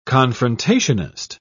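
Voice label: "confrontationist", British English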